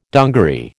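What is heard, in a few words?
"dungaree", American English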